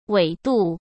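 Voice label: "纬度", Mandarin Chinese; chinese-flashcards; hsk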